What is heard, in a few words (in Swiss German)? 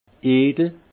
"edel", alsace; vin; noble